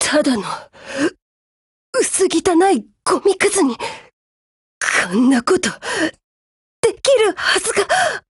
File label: DEAD JP; GF Alarm; Alchemist